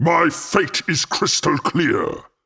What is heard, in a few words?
voice, taunt; kosmyr